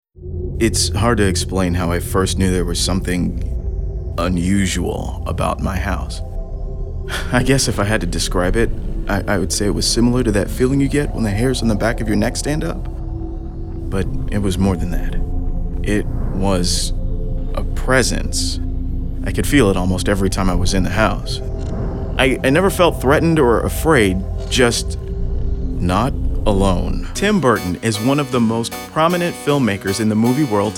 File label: Narrative Demo